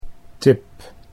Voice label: "tip", Dutch English